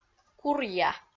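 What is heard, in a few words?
"куръя", Komi, female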